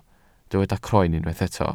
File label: "croen", welsh